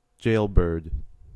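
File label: "jailbird", US English; pronunciations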